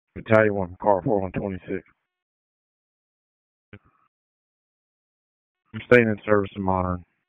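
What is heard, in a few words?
Public Safety Tactical, p25; wake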